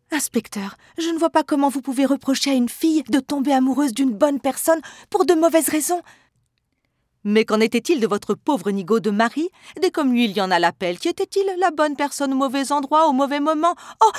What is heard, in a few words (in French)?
Les 2 voix jeu schizophrène Doublage